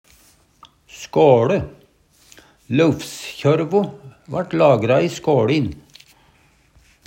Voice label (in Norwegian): "ska Le", Numedalsmål